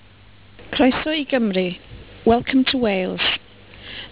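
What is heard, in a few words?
"croeso", Welsh; Wales; culture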